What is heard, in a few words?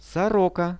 "soroka" Russian; сорока; birds; Какая это птица?